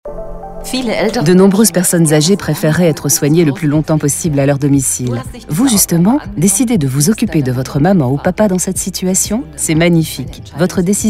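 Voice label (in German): französische Sprecherin; french voice-over talent female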